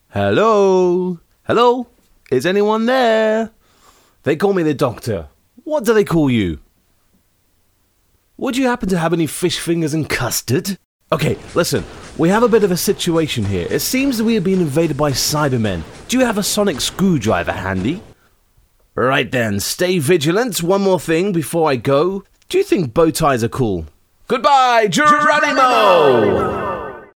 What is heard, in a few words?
VO with effects